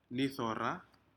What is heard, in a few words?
shellfish nidiora, Aneityum